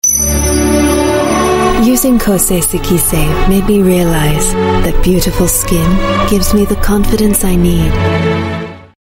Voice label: Asian English TVC Make Up